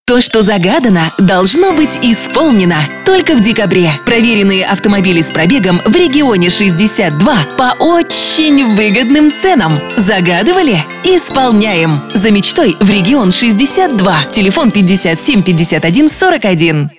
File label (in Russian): zhenskij golos immidzhevyj; Region 62